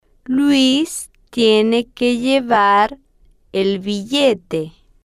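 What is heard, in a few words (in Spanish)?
Luis tiene que llevar el billete, spanish, female, slow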